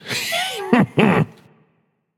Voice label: hehheh; chronos